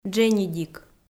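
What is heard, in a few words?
"дженьыдик", Komi, female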